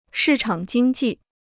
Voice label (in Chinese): "市场经济", Chinese